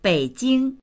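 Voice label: "bei jing", Mandarin; 北京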